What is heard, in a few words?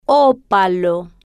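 "o-pa-lo", Spanish, female, slow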